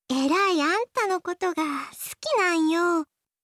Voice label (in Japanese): 好きです 徳島; 春香